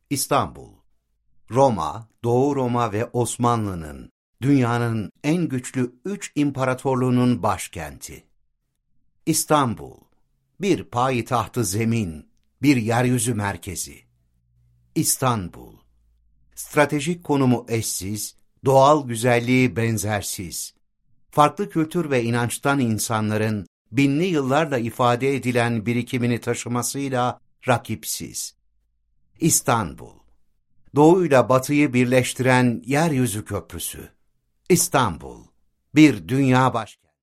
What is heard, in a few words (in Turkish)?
tanitim